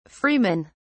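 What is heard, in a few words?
"freemen", British English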